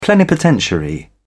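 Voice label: "plenipotentiary", British English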